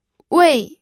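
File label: "wei4", Mandarin Chinese, pinyin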